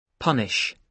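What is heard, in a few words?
"punish", British English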